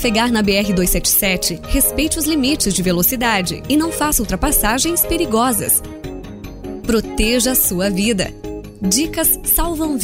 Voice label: locutora; WS STUDIO AUDIO E DESIGN

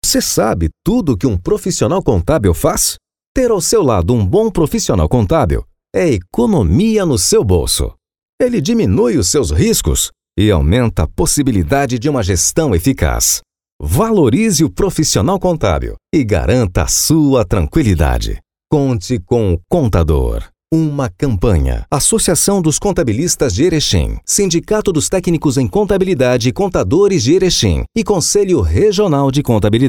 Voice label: voz locutor institucional

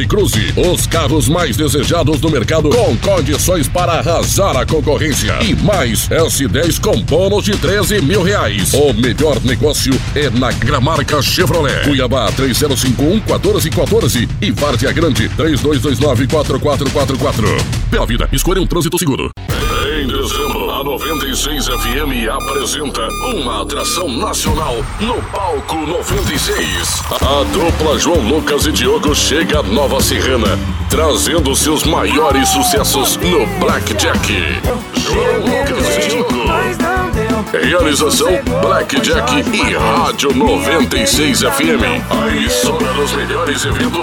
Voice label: locução brasil, Locutor

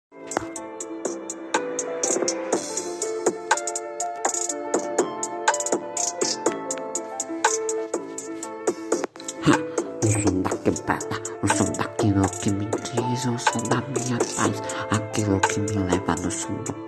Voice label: rap

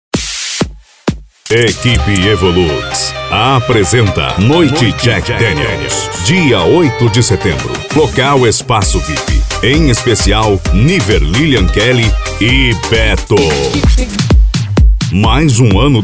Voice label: voz locutor chamada

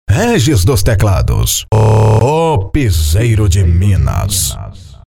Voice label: vinheta

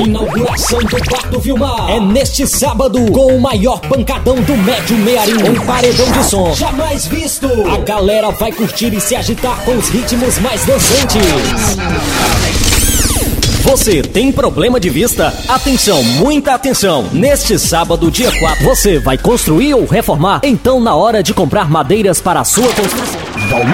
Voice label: portifolio locutor

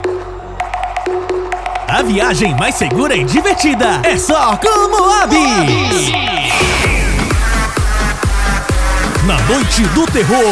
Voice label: locuções

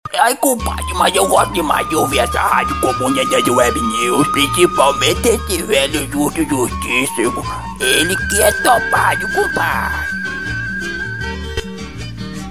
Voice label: vinheta voz do caipira; Elogiando A Rádio Comunidas Web News

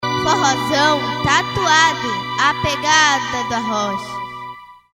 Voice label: Vinheta

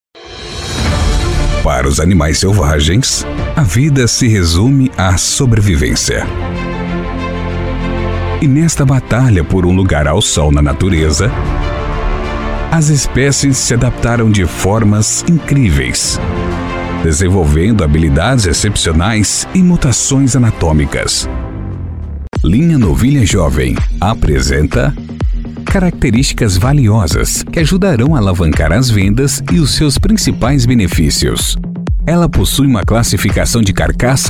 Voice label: locutor

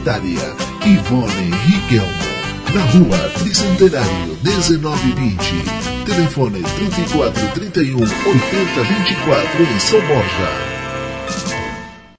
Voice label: vinheta propaganda de viandas

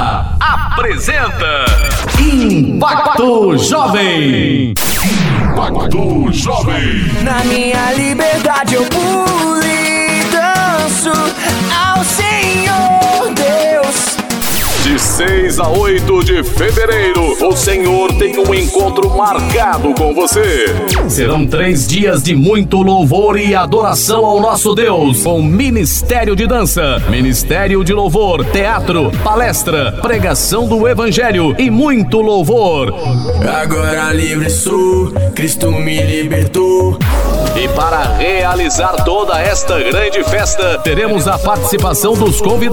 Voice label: spot igreja; missao crista brasileira; impacto jovem

